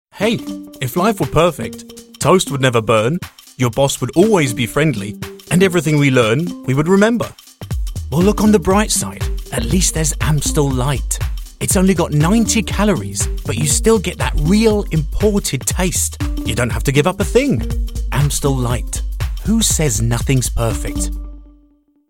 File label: English Commercial, male voices